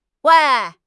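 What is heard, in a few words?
"wei2", Chinese; HSK; HSK1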